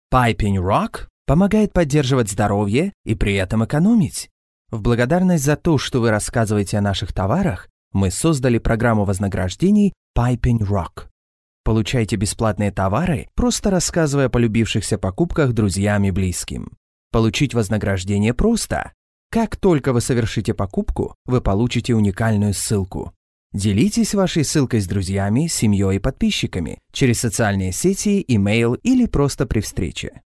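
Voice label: Ukrainian, male, voice over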